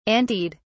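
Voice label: "anteed", British English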